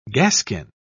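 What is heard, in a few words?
"gaskin", British English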